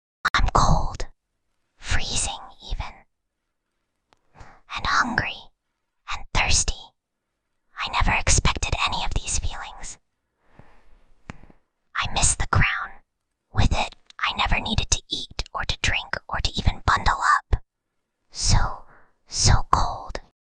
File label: Whispering Girl